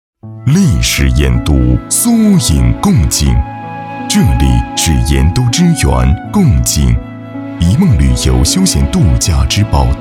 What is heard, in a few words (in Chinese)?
专题大气沉稳介绍; 贡井